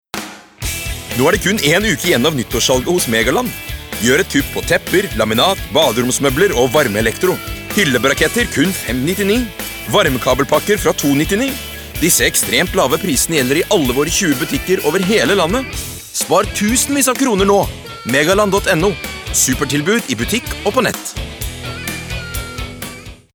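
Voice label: Norwegian hard sell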